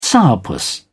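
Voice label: "sourpuss", British English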